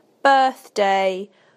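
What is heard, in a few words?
"birthday", British English; PictureDictionary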